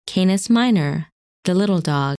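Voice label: "Canis Minor", English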